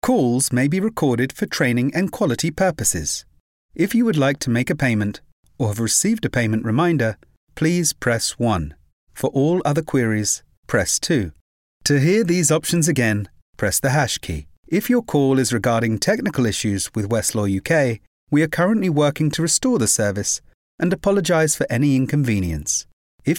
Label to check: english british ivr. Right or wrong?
Right